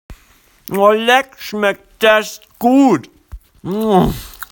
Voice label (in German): Sprachnachricht